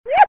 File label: "woah" cartoon; ooh ahh